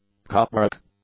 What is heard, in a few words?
"cupric", English; adjectives